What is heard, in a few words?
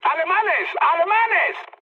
enemy detected, mood high, speech; War Thunder